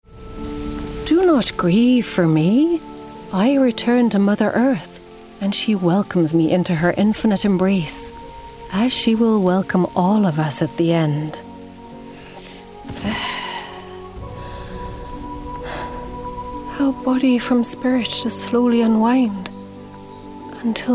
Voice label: Gaming Mystic Irish